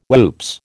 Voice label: "whoops", American